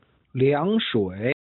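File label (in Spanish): "凉水", chino mandarin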